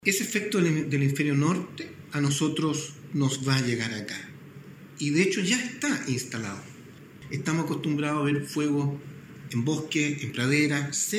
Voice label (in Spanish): cuña